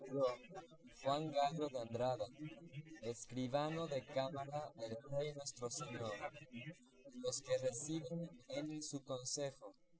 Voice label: male4 inst src; SiSEC08; SiSEC underdetermined

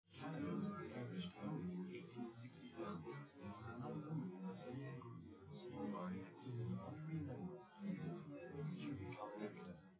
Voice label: male, live rec

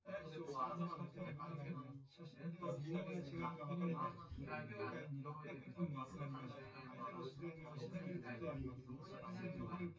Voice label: male synth conv sim; SiSEC10; underdetermined

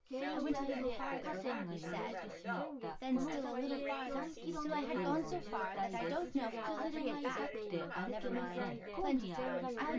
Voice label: female synth conv mix

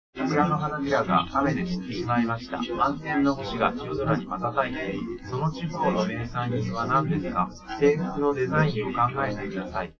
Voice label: male live rec 1m sim; SASSEC07

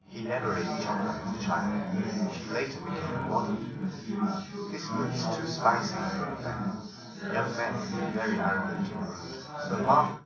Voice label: male4 380ms 50cm, underdetermined; SiSEC11

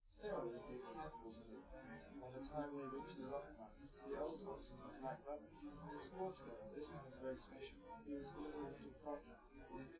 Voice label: male live rec; SiSEC10; underdetermined

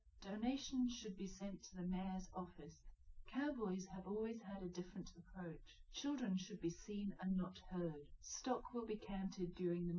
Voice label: female liverec 250ms 1m sim; SiSEC08; SiSEC underdetermined

